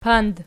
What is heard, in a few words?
"pand", Archi